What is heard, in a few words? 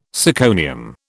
"syconium", British